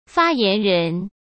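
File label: "发言人" Mandarin Chinese; hsk; chinese-flashcards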